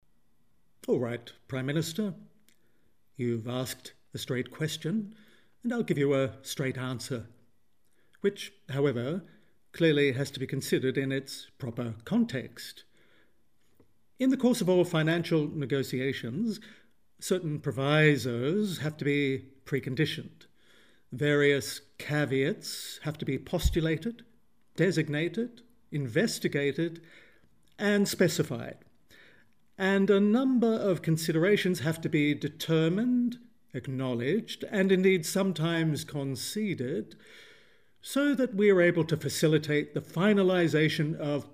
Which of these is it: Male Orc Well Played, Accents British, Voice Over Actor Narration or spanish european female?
Accents British